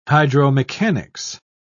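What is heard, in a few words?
"hydromechanics", British English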